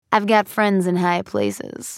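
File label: taunt, English; Quinn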